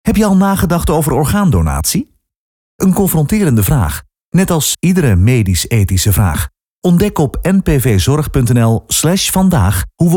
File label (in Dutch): Commercial; NPV Zorg Orgaandonatie 2025; Groot Nieuws Radio; Radiospotje Orgaandonatie; De campagne Denken over morgen begint vandaag